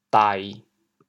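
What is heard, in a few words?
"dai1", Teochew, chaoyang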